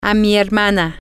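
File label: my sister, Spanish, female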